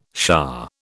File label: "shah", British